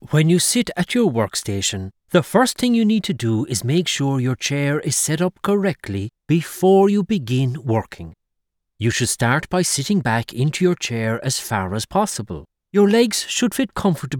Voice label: eLearning